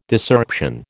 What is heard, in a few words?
"Discerption", American